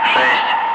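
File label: "5", spoken; Ka-50; Wingman; Digits; DCS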